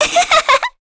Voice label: Happy, kr; Lavril; kingsraid; voices heroes